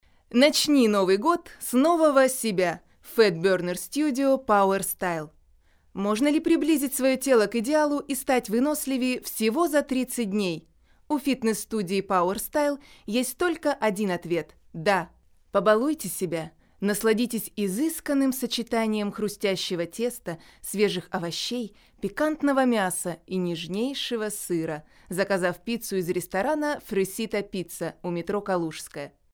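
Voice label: Russian Russia female sales; russian voice over talent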